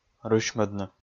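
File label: "рысьмӧдны", Komi, male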